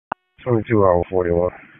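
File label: Sheriff's Office Dispatch; WSO DISP 1; wake; p25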